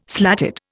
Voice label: "slatted", Indian